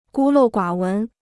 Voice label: "孤陋寡闻", Chinese

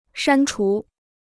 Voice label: "删除", Chinese

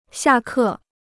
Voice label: "下课", Chinese

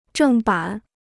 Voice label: "正版", Chinese

